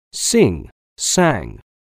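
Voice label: "sing", English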